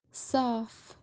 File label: "saaf shod", kashmiri